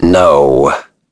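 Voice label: Vox Deny, English; Shakmeh; kings raid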